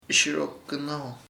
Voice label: other indigenous peoples tribu, chamacoco

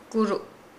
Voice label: "breadfruit", Nukuoro

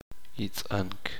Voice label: "broma", Uspanteko

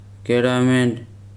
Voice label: "tree", Weri

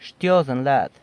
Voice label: "Xtyozën laad", San Lucas Quiavini

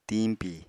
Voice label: "tiñpi", apatani

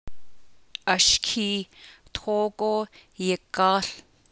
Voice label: navajo; The boy is going to the windmill; Progressive (Prog)

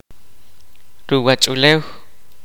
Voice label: kaqchikel

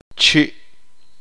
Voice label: "boca", Uspanteko

